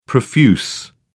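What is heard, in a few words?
"profuse", British English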